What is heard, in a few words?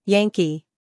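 "Yankee", American English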